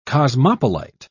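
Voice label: "cosmopolite", British English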